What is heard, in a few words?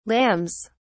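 "lambs", British English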